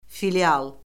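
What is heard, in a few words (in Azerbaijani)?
"filial", Azerbaijani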